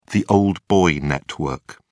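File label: "old boy network", British English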